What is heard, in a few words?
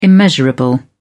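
"immeasurable", British English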